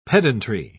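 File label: "pedantry", English, British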